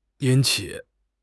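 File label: "yin3 qi3", Mandarin Chinese; HSK4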